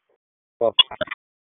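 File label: Police Spec Ops Tac, radio; Raleigh; Spec Ops Tac 4; wake; p25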